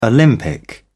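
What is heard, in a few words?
"olympic", British English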